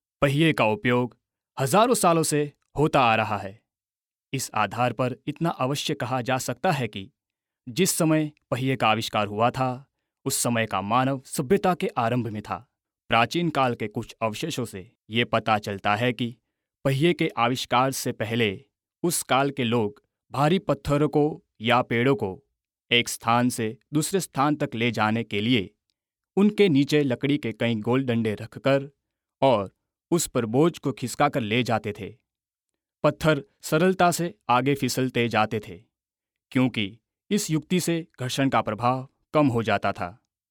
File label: elearn hindi